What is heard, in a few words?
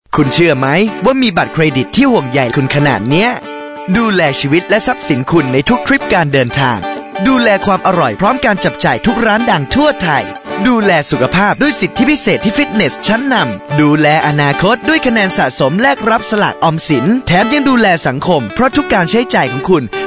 radio spot